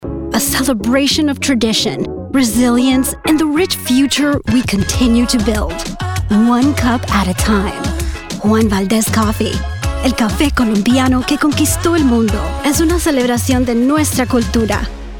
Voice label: commercial voiceover, authentic, anthemic, powerful; Juan Valdez